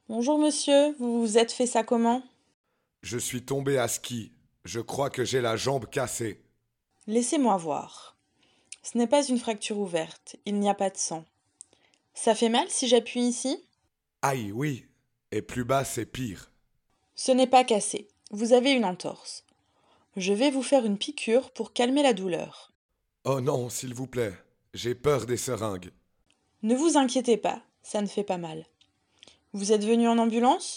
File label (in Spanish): Dialogo, francais